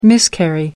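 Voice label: "miscarry", British English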